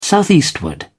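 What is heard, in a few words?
"southeastward", English, British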